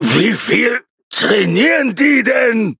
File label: defeat, de; cookie run